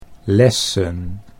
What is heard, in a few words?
"lessen", Dutch